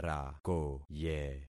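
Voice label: "rakoye", concatenated syllables; Affixing experiment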